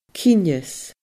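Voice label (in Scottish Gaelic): "cinneas", Scottish Gaelic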